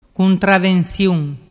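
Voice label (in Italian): "cuntravensiun", Vernazzese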